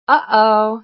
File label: Female